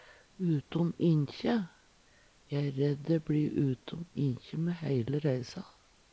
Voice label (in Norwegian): "utom inkje", Numedalsmål; ingenting av, gå i vasken; E æ redd dæ bLi utom inkje mæ heile reisa.